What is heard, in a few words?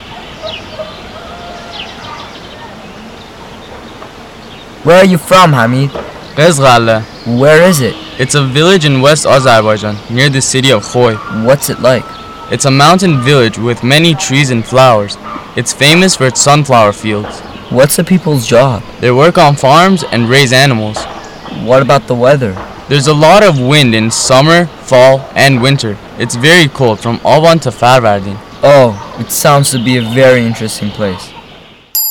conversation